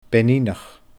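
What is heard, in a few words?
"Beinineach", Scottish Gaelic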